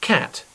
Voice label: "cat", English; domaci zvirata